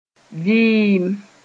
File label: "vɩɩm", moore